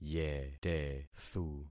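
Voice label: "yetethu", concatenated syllables; Affixing experiment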